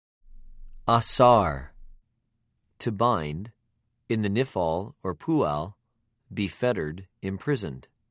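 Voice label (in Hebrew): "אָסַר", Hebrew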